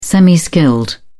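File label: "semi skilled", English, British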